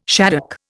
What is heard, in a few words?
"shaddock", Indian